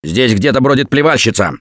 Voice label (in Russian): heard spitter, left4dead2 russian, Survivor Voice; Gambler